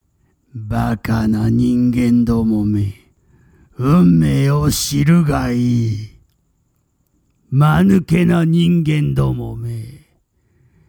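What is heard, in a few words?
Japanese, game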